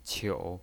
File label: "qiu3", pinyin